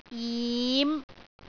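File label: "jim5", Cantonese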